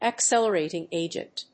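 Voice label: "accelerating agent", English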